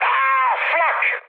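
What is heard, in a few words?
Italian damaged aa mood high, speech; War Thunder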